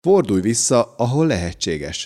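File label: "fordulj vissza", Hungarian, male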